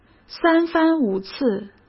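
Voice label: "三番五次", Mandarin Chinese; chinese flashcards; hsk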